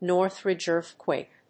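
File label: "Northridge Earthquake", English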